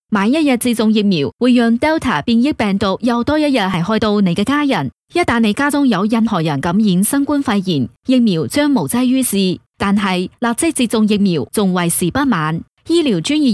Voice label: Mandarin Female Cantonese Clean